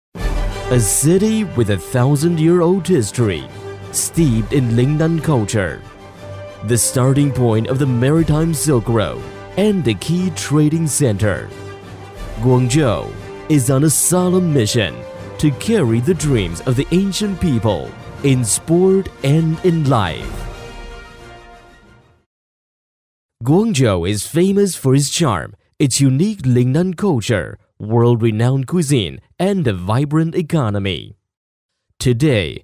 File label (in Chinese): 英男 宣传片; 2010亚运会环幕